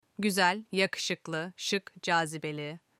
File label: beautiful in turkish